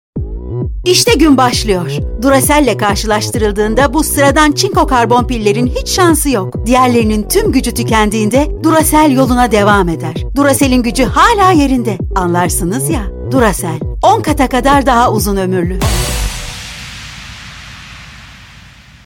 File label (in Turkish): reklam; duracell